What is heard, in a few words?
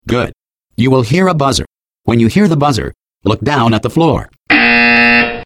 opening exercise, announcer; Portal 2